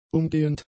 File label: "umgehend", German; adjectives